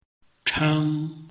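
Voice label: "cheng", Chinese, tone 1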